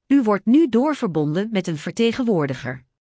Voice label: connected, phone